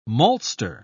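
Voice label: "maltster", English, uk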